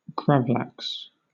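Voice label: "gravlax", British English; pronunciations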